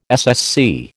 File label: "SSC", American